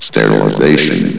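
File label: "sterilization", male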